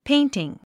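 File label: "painting", English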